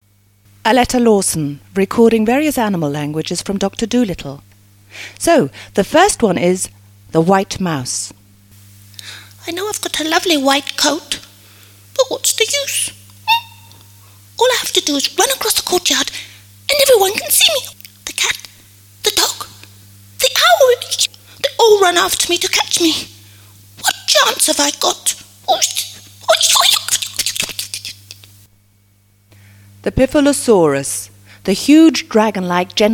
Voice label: female German English speaking animals compilation; english doctor dolittle speaking animals